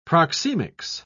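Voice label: "proxemics", British English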